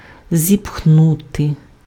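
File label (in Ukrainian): "зіпхнути", Ukrainian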